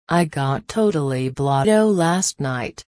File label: frase blotto, Reino Unido; girias